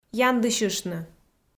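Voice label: "яндысьыштны", Komi, female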